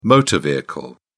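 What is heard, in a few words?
"motor vehicle", British English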